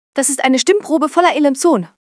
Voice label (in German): Dringend, stimmen; moods